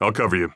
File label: covering friend, heavy; vo; Counter Strike Model Pack; CS:GO; phoenix coop